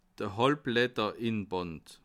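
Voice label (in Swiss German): "halbledderinband", Bas Rhin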